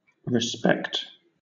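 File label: "respect", British English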